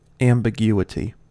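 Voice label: "ambiguity", US English; pronunciations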